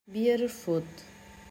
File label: "byerfod" arabic pronunciation